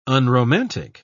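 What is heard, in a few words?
"unromantic", English, British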